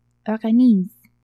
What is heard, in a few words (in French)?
"Organise !", french